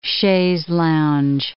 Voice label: "chaise lounge", English